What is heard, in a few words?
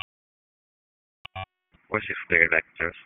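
EMS Dispatch, p25; wake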